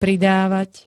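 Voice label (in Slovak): "pridavat", Slovak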